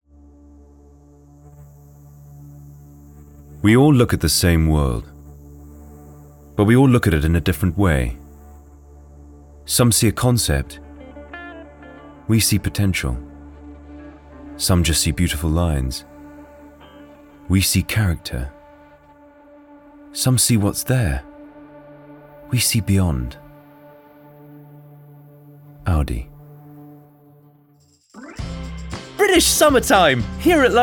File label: Commercials